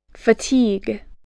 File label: "fatigue", voice